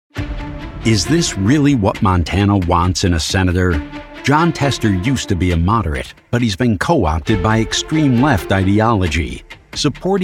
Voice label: Republican Voiceover, Political GOP Voices English MALE